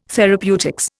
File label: "therapeutics", Indian